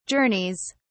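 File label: "journeys", British English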